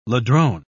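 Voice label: "ladrone", British English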